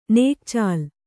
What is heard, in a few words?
"ನಗೆಚೆಲ್ಲು", kannada